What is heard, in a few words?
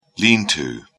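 "lean-to", English, British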